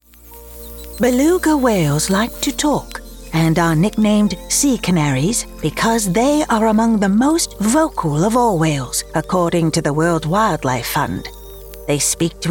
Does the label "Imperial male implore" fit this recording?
No